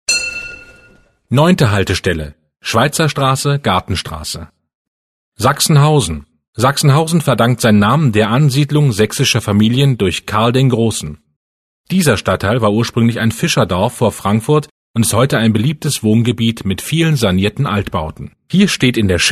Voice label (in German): Hochdeutsch; Schweizer Gartenstrasse; Ebbelex; Entlang der Strecke